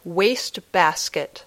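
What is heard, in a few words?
"waste basket", English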